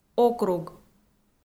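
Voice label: "округ", Komi, female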